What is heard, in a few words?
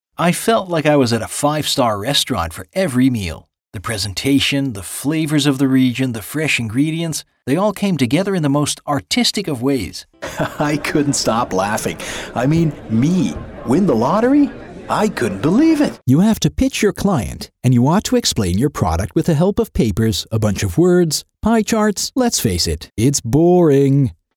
male canadian voice over character